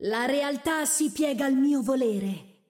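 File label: Italian; 秩序之光（守望先锋）; 终极技能; 意大利语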